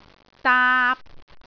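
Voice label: "daap3", Cantonese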